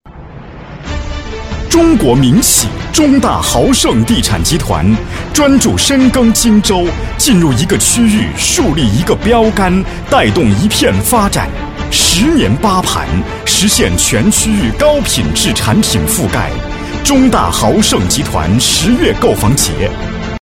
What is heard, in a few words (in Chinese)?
男 房地产 大气激昂; 中大豪盛; 年轻多变